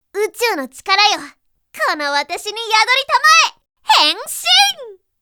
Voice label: girl